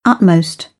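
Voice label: "utmost", British English; AmericanBritish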